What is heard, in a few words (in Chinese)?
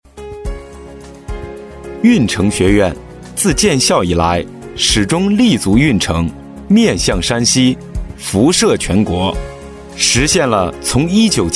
宣传片 学院; 运城学院